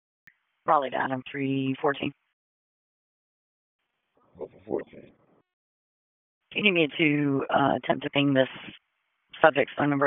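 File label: Police Northeast District Dispatch, P25; Raleigh; wake p25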